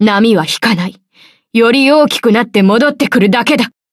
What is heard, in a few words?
upgrade, ja; Cookie Run